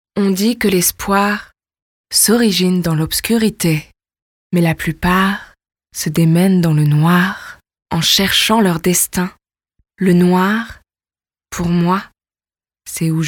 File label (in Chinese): 外籍法语女-抒情